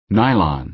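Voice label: "nylon", American English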